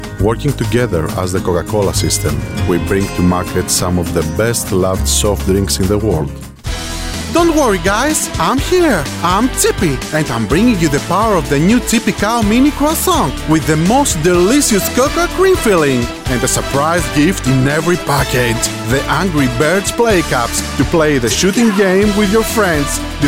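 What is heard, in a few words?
Greek English; Male; 20s-40s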